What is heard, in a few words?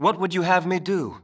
Male; baldurs1